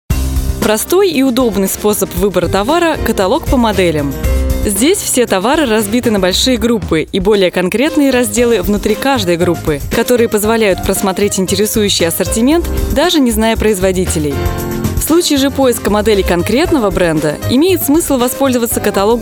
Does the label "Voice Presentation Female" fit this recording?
Yes